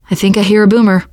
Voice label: heard boomer, teen girl; player; survivor; voice